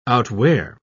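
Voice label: "outwear", English, British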